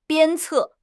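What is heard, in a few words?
"鞭策", Mandarin Chinese; HSK; HSK6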